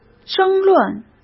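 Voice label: "争论", Mandarin Chinese; hsk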